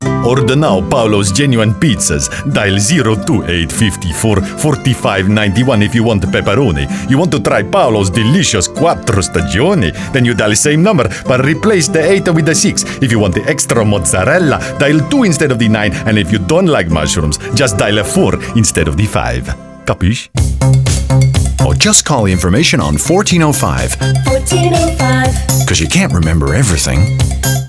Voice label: Italian accent, voiceover; Pizza Pepe